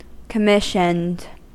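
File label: "commissioned", US English; pronunciations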